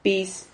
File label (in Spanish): "piz", Silabas